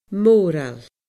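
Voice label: "mórail", Scottish Gaelic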